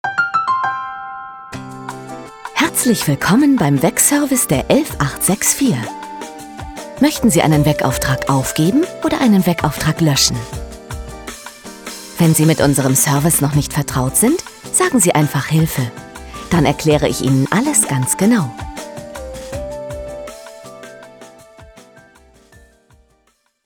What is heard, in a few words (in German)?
telefon, deutsch frauen